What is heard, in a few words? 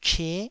"che9", taiwanese